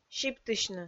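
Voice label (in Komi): "сиптысьны", Komi, female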